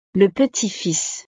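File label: "le petit fils", French; familia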